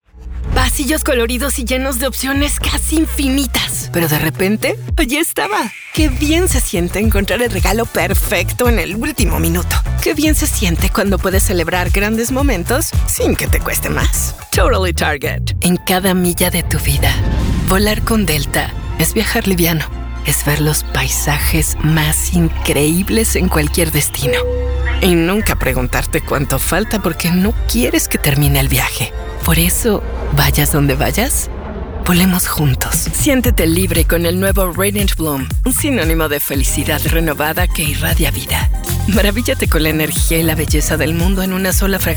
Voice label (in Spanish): Comercial